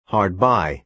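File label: "hard by", American English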